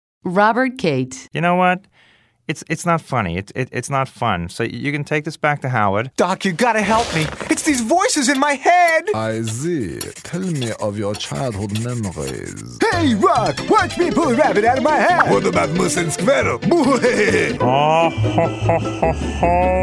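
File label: animation, men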